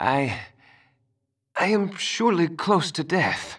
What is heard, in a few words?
Male; baldurs1